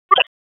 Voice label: Police LE Ops 9, p25; Raleigh; wake